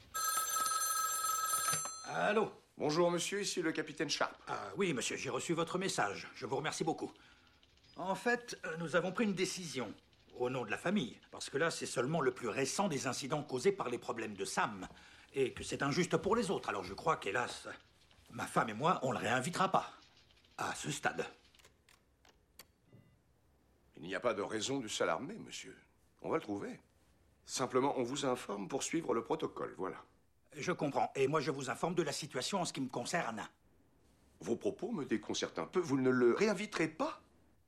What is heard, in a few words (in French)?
Conversation telephonique VF